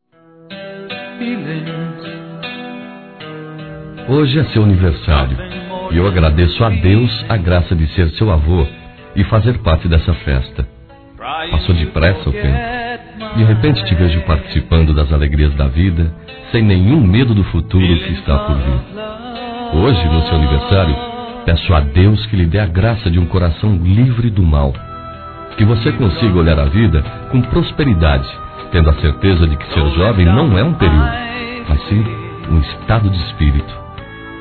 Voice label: Neto (a) Masculino, Aniversários; Aniversários diversos

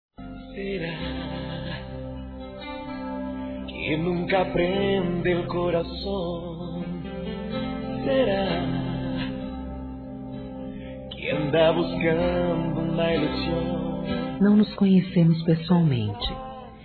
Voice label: amizade por telefone aniv., Telemensagens, Fem

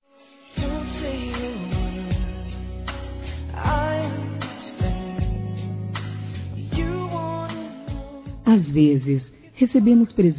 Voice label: Aniversário Sobrinha Feminino

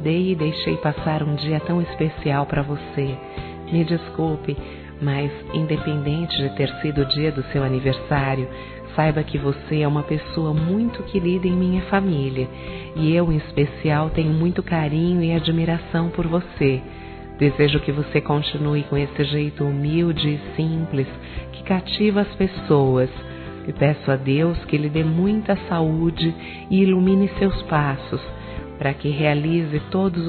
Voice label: GENRO F; Telemensagens 2017; Aniversários; Aniversário atrasado e antecipado

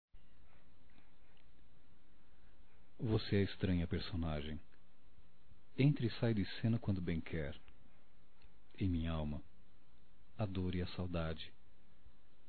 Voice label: Amor Proibido Poesia Masculino; Telemensagens 2017

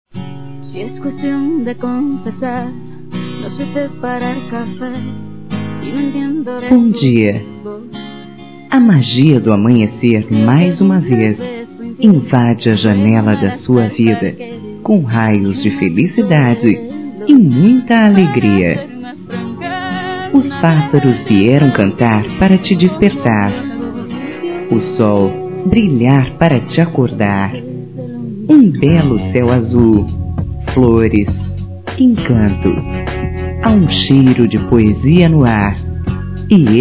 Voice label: Bom Dia Feminino; Dia Dos namorados; Telemensagens 2017

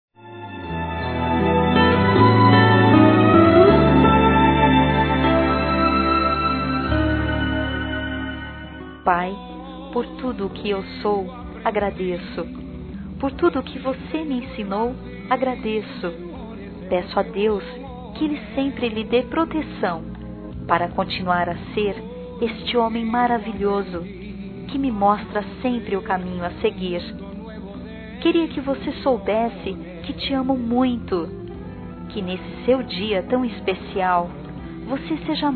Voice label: QUE BOM SER SUA FILHA, fem; Telemensagens 2017

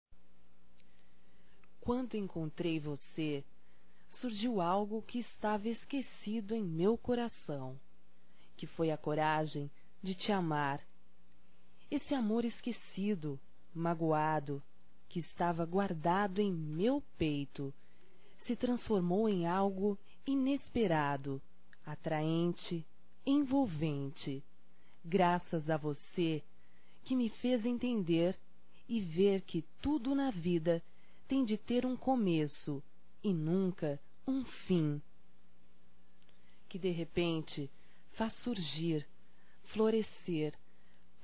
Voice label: Feminino; Amor Esquecido Feminino

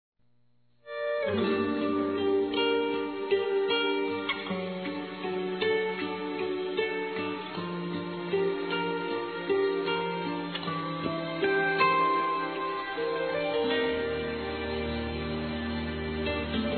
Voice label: Aniversário Namoro Feminino; Aniversários; Aniversários diversos

